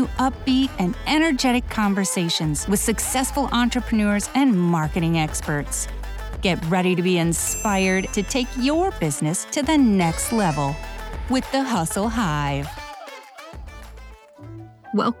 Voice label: Podcast